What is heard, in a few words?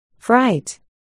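"fright", spoken word, articulation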